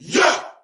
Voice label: "yeah", group of men